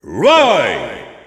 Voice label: French Announcer; Roy Koopa; SSBU